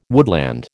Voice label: "woodland", American

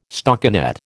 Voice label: "stockinet", American

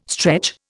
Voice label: "stretch", Indian

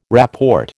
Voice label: "rapport", American

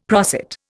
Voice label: "prosit", Indian; Dictionary

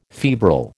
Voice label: "febrile", American

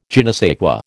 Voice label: "je ne sais quoi", American

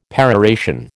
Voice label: "peroration", American

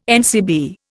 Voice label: "NCB", Indian

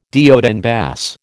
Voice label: "de haut en bas", American

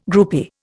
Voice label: "groupie", Indian

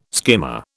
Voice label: "skimmer", British

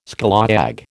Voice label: "scallawag", American

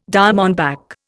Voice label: "diamondback", Indian

